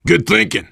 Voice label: generic responses, voice, biker; Left 4 Dead 2; left4dead2 dlc3; survivor